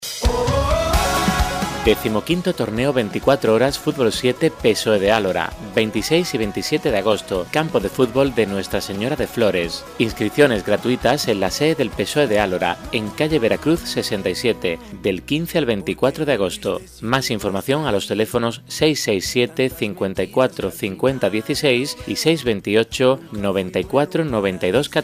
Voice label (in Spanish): anuncio; PSOE; ALORA